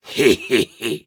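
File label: story, Japanese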